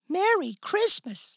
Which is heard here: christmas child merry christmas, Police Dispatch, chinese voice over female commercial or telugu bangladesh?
christmas child merry christmas